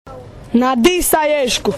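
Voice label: "naditi", Croatian dialect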